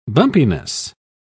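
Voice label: "bumpiness", British English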